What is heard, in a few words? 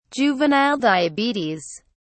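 "juvenile diabetes", British English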